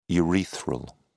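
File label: "urethral", British English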